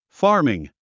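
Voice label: "farming", English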